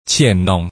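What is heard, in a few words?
"錢囊" Hakka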